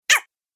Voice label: "Ow" Cartoon Game Voice